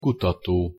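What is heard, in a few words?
"kutato", Hungarian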